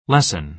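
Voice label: "lessen", American English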